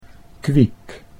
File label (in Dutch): "kwiek", Dutch